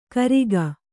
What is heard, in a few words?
"ಕರಿಗ", Kannada